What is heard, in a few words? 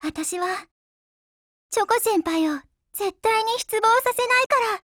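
FEED Japanese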